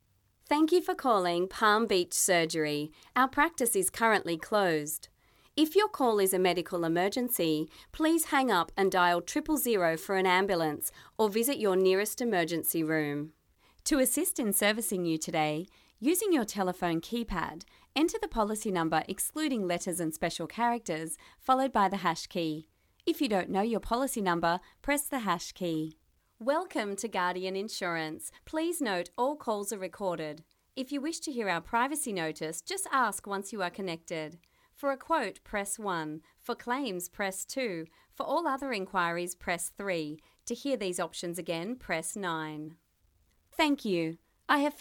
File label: IVR Messages on hold